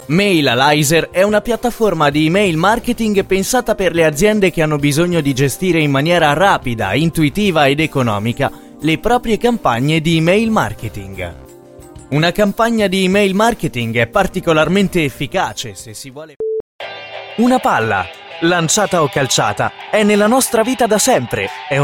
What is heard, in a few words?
corporate mix